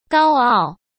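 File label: "高傲", Mandarin Chinese; chinese flashcards; hsk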